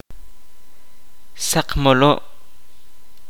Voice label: "huevo", kaqchikel

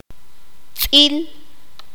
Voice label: sucio, qanjobal

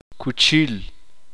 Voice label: uspanteko cuchillo

